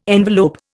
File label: "envelope", Indian